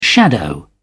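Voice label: "shadow", British English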